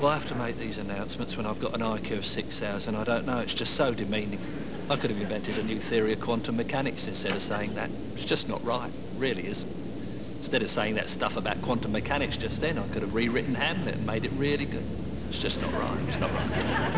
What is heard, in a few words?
announce